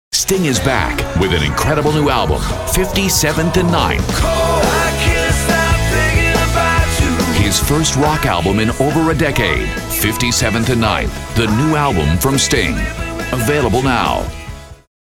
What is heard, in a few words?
TV Promos